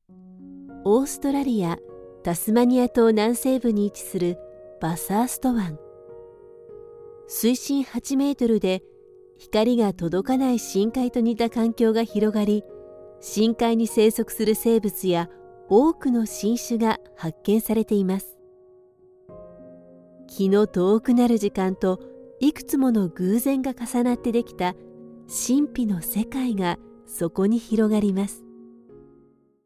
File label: Audioguide